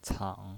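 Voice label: "cang", Mandarin Chinese, third tone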